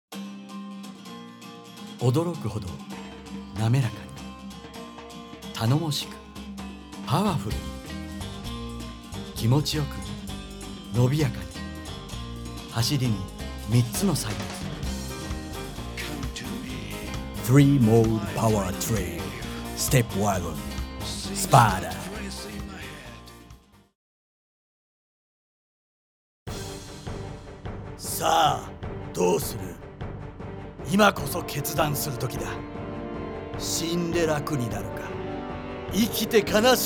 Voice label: Male Commercial Japanese